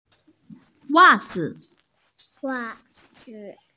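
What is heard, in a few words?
"socks", Mandarin Chinese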